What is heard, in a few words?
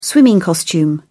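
"swimming costume", British English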